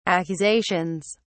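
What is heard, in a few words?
"accusations", British English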